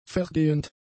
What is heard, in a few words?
"vergehend", German; adjectives